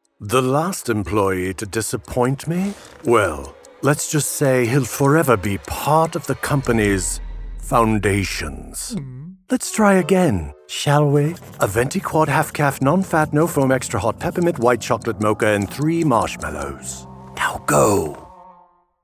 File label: Animation; The Chancellor